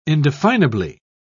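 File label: "indefinably", British English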